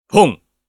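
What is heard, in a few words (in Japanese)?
"ポン", Japanese; ボードゲームやカジノ、麻雀の効果音です。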